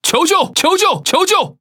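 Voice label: Speech Quick Commands Sos, Taiwan; World Of Warships; ZH TW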